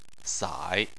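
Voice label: "sai", Mandarin Chinese, third tone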